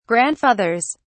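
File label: "grandfathers", English, British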